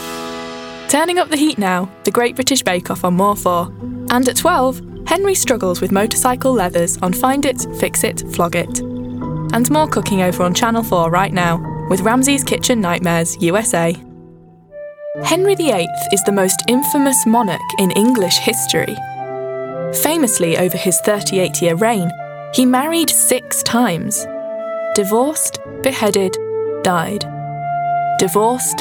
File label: Narration